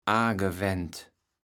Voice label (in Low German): "aangewend", kaevels platt